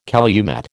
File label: "calumet", American